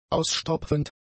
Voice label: "ausstopfend", German; adjectives